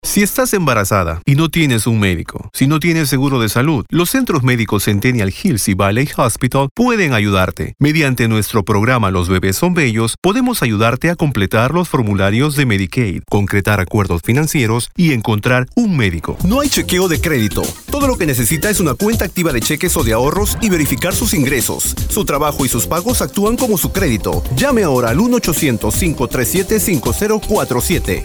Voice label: Spanish Male 30s Commercial